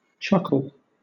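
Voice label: "chuckle", English, British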